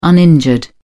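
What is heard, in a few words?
"uninjured", British English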